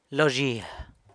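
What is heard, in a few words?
"lo zhia", field, San Lucas Quiaviní Zapotec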